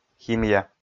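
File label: "химия", Komi, male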